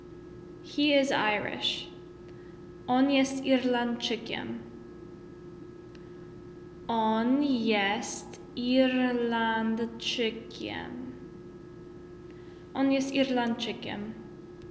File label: Pol He is Irish